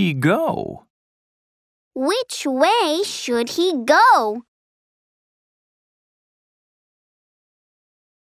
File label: Read a Map echo; Can you Read a Map